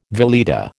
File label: "veleta", American